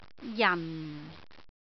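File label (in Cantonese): "jan4", Cantonese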